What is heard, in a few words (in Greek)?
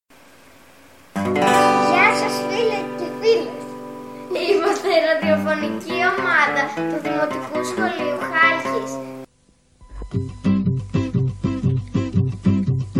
spot; istoriachalkis